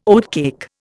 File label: "oatcake", Indian